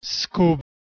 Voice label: "skub", Proto Germanic

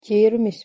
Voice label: "kirmis", Latvian

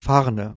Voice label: "farna", Proto-Germanic

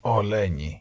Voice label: "oleni", Modern Greek

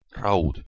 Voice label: "raud", Proto Germanic

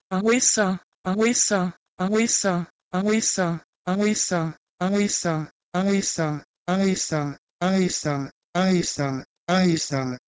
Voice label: Proto Hellenic "awesa" to Ancient Greek "aesa"

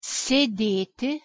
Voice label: "sedeti", Lithuanian

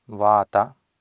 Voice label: "vaata", Sanskrit

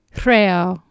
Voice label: "hreaw", Anglo Saxon

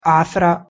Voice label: "aasara", Panjabi

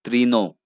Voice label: "trino", Bengali

